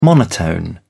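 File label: "monotone", British English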